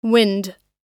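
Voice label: "wind", English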